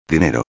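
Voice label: "dinero", Spanish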